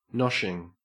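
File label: "noshing", Australian English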